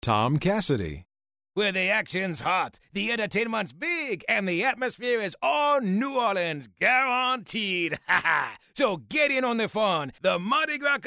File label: CAJUN, New Orleans